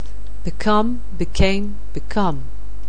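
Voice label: "become", English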